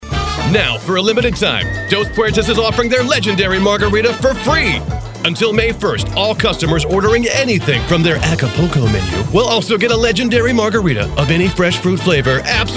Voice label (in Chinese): 外籍美式英语轻快激情广告风